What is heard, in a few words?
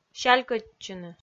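"сялькӧдчыны" Komi, female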